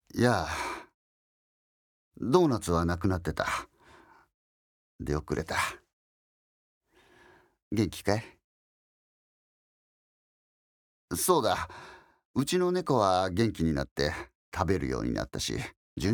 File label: difficult man